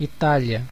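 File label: "italy", Brazilian Portuguese; gcompris; geography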